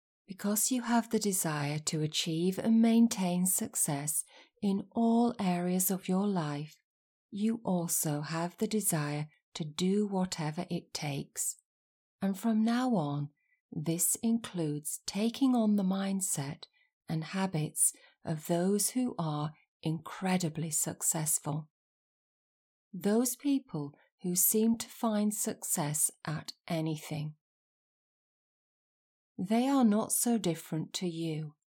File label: English (UK), Female